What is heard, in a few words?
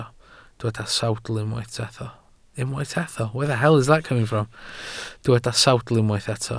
"sawdl", Welsh